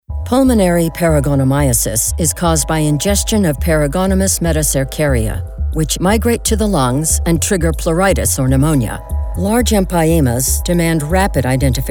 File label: medical, voiceover